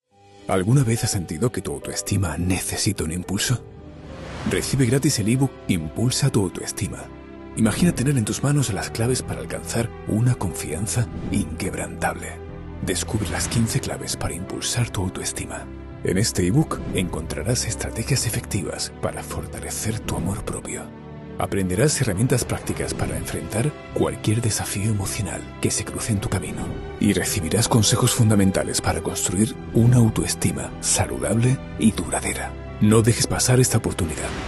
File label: spanish announcements